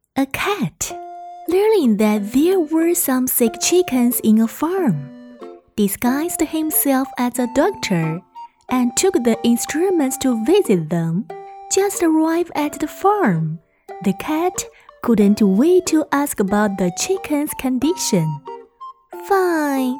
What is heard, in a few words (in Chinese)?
双语绘本